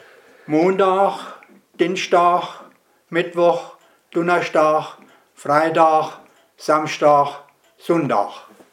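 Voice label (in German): wochentage, Hunsrück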